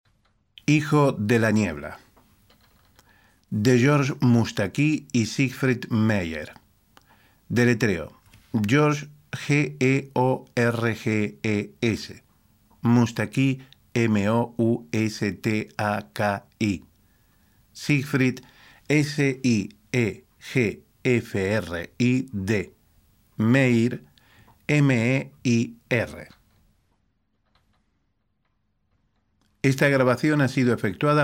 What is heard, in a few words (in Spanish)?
audiolibro